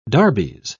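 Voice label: "darbies", British English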